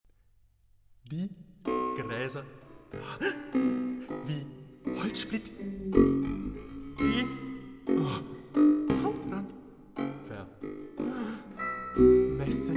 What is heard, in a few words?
male